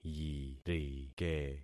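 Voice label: "yirike", concatenated syllables; Affixing experiment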